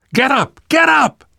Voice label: revive friend loud, namvet, survivor voice; Left 4 Dead 2; left4dead2 dlc3